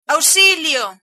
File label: help, galician